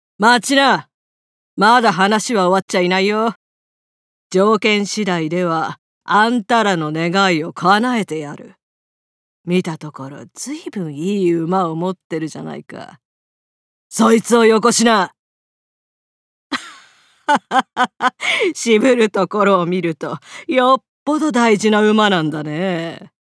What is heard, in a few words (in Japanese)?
ワイルドな賊40代